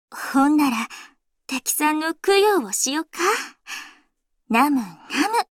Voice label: Voice victory